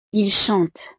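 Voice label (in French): "ils chantent", French; Ellos cantan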